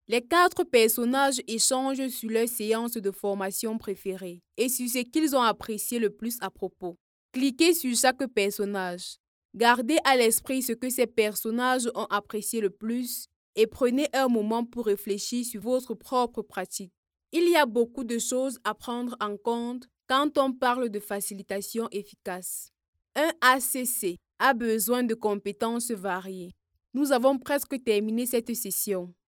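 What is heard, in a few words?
African French, female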